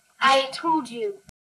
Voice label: Voice Acting es